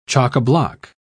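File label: "chockablock", British English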